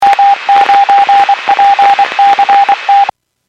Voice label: Skywarn 146.67; Stevens Point